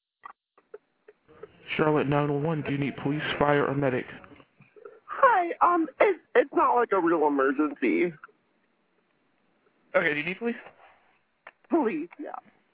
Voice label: 911 call; CMPD